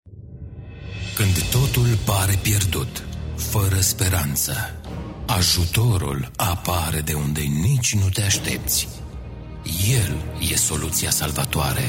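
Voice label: Romanian male; lektoring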